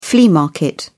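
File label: "flea market", British English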